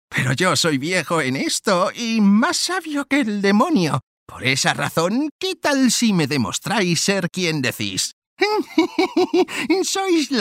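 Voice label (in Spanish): doblaje España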